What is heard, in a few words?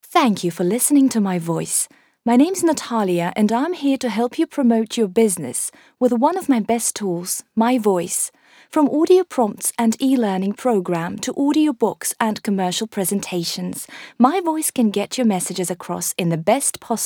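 Ukrainian, Accented English; GoLocalise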